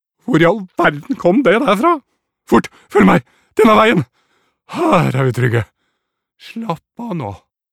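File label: dub, Norwegian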